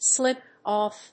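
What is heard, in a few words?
"slip-off", English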